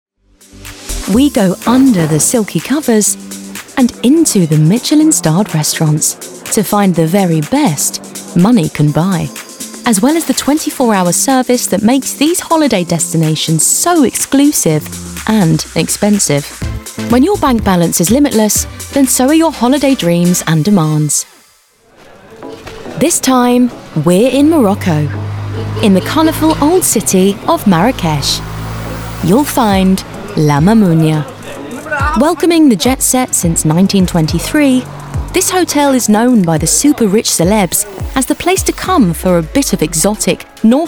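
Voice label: Narration, Female, Bright, Conversational, Engaging, Reassuring; Billionaire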